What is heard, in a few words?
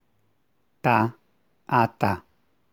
t unaspirated